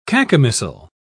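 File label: "cacomistle", British English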